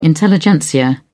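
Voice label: "intelligentsia", British English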